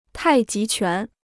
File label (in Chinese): "太极拳", Chinese